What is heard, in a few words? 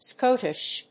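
"Scotish", English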